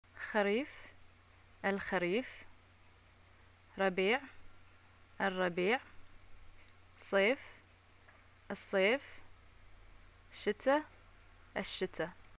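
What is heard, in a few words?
seasons, Bahraini Arabic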